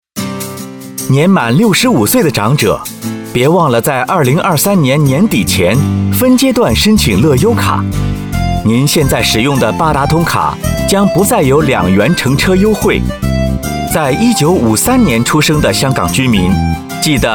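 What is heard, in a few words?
Mandarin; fare concession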